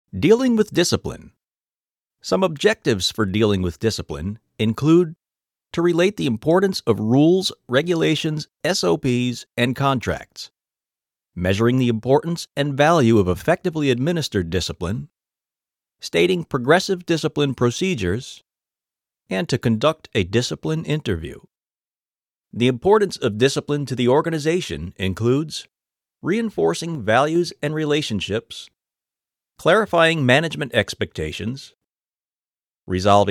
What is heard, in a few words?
elearning, narrations